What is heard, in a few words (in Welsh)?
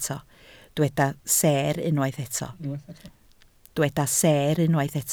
"seer", Welsh